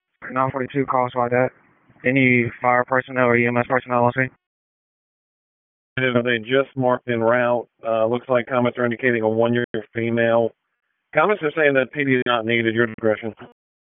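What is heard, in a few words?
Sheriff's Office Dispatch; WSO DISP 1; wake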